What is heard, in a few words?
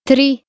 "3", Slovak